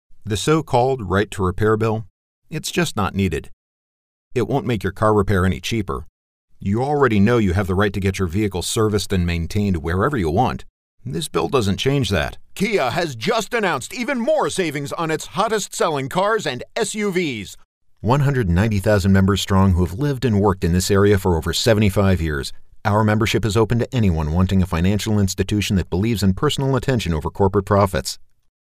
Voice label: dry commercial; dictor

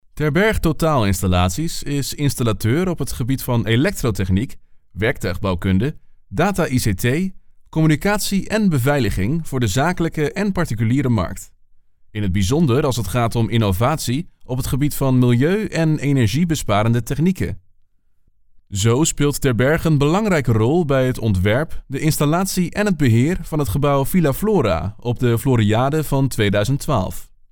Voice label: dutch male; dictor

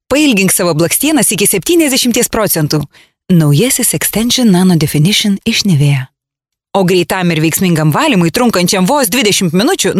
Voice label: lithuanian female

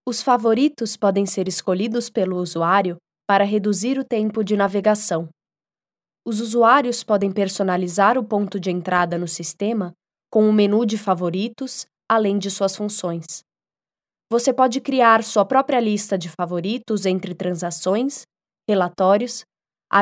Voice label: brazilian portuguese female

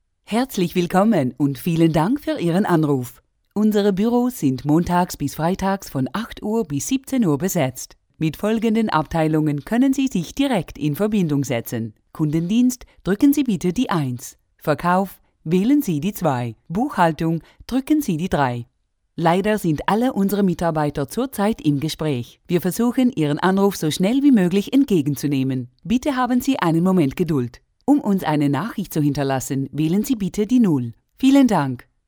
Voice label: german female; dictor

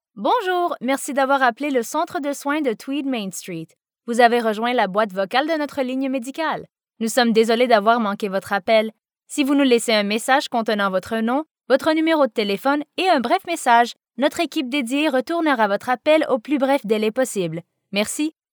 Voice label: ivr sample french canadian; dictor

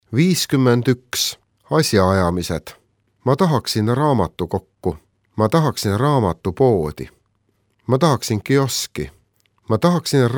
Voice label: estonian male; dictor

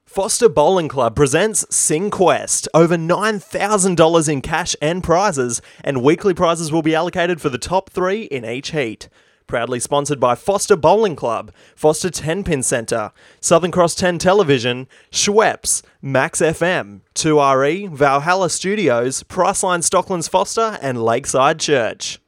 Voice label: english australian

